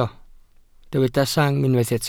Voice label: "sang", Welsh

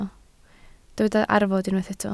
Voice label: "arfod", Welsh

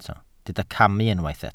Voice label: "camu", welsh

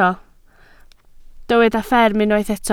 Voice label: "fferm", Welsh

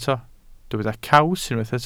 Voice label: "caws", welsh

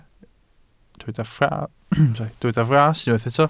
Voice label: "fras", Welsh

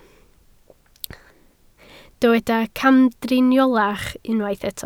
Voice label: "camdriniolach", Welsh

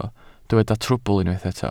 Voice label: "trwbl", Welsh

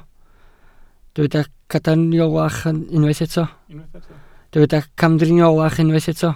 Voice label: "camdriniolach", Welsh

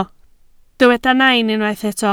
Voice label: "nain", Welsh

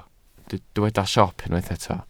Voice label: "siop", Welsh

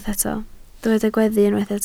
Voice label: "gweddu", Welsh

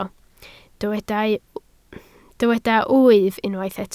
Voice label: "wyf", Welsh

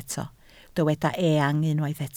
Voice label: "eang", Welsh

